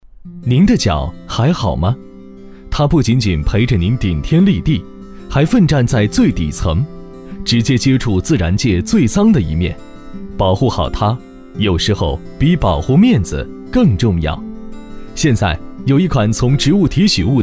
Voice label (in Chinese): 男 宣传活力; 您的脚还好吗